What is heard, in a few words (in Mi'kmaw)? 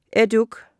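"etuk", Mi'kmaq; wowkwis aqq kaqaquj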